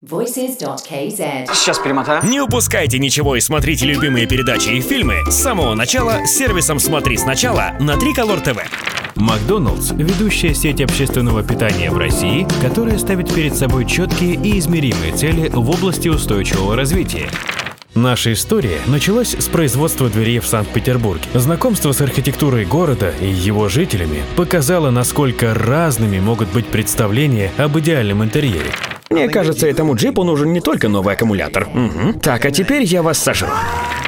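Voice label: Russian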